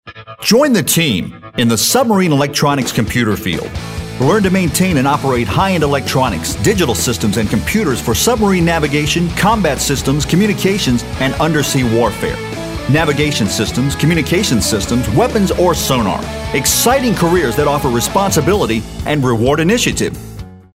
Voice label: authoritative

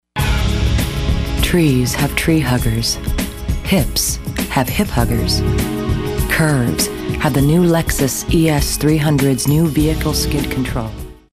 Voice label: smooth

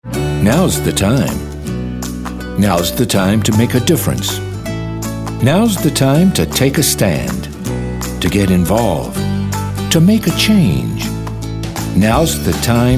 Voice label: motivational

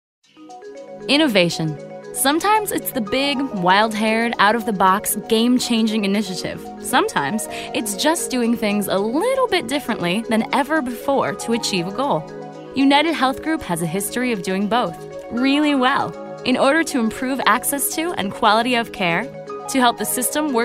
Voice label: informative

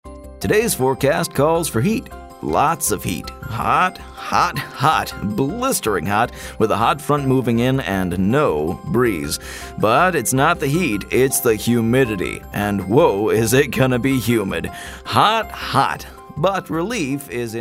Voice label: newscasters

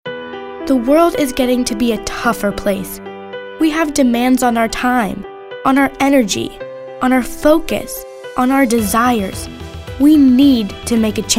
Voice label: motivational

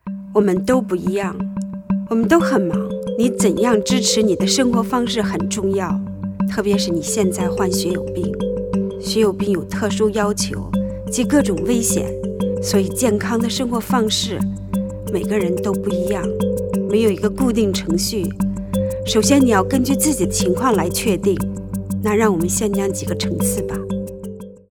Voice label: Chinese Mandarin; Level With Me

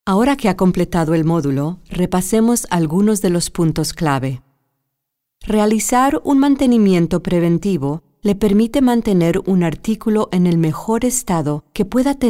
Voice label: narrative

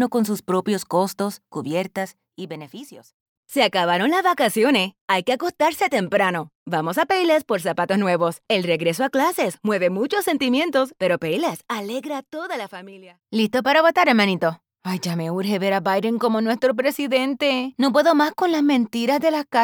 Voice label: Puerto Rican

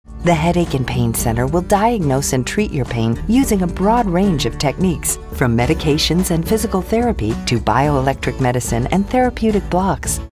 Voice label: friendly

